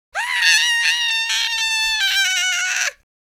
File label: scared